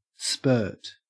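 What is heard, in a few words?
"spurt", Australian English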